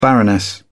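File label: "baroness", British English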